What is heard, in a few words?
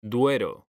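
"duero", Spanish, male; mekanta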